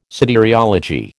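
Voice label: "soteriology", American English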